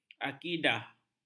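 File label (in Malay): "akidah", Malay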